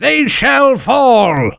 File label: battlecry